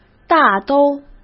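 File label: "大都" Mandarin Chinese; hsk; chinese-flashcards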